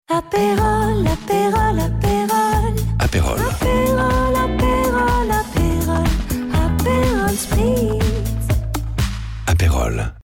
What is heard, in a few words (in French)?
pub FR; Aperol